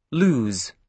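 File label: "lose", British English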